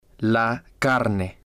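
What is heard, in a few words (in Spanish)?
"la carne", spanish, male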